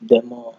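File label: demo, Naija, male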